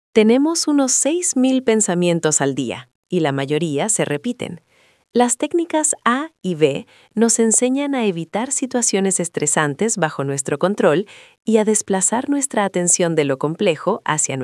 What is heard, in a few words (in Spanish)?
locucion